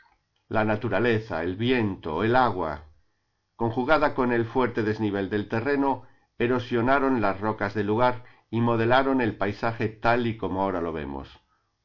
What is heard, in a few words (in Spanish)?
castellano; PANEL PEDRAS SENLLEIRAS